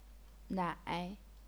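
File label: "nai", third tone, chinese pinyin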